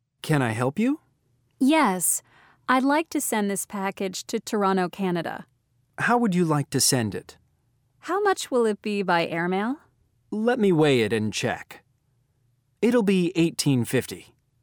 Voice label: Going to the Post Office Dialogue Reading